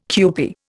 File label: "kewpie", Indian